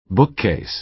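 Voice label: "bookcase", American English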